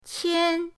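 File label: "qian1", Mandarin Chinese, pinyin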